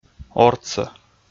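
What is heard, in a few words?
"ортсӧ", Komi, male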